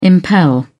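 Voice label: "impel", British English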